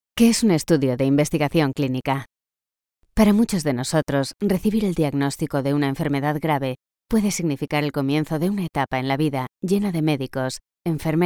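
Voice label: Spanish, female, elearning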